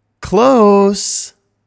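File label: "Close", english pronunciation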